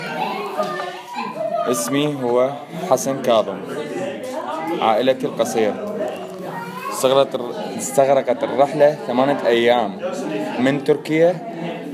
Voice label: Arabic